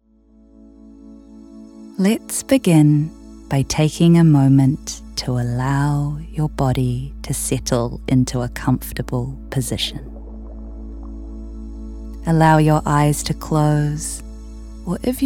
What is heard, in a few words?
Meditation